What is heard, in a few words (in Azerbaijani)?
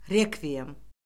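"rekviyem", Azerbaijani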